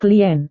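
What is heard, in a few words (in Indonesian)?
"klien", Indonesian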